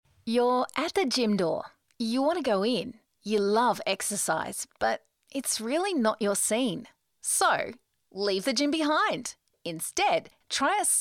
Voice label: Soft Sell

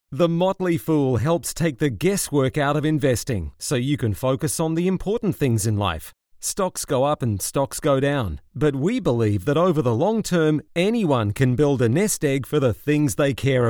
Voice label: Smooth Classy

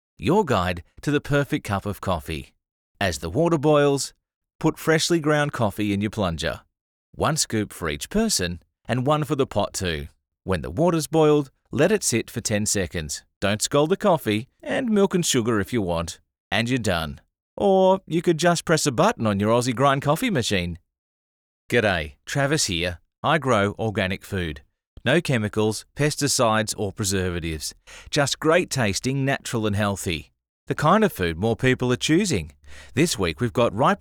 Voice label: Relaxed

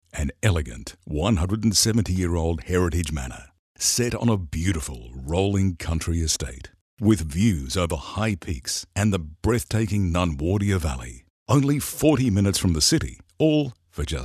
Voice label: Classy

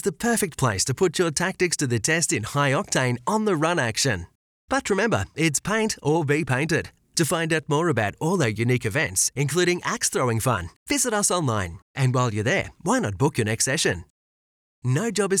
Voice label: On Hold Messaging

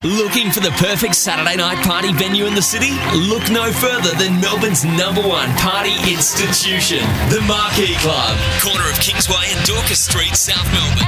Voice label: Young Hip

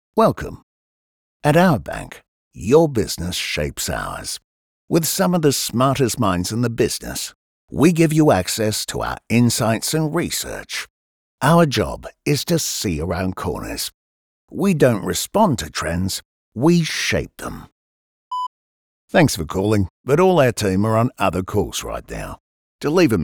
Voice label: ON HOLD

